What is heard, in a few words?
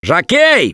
warn jockey, russian; Gambler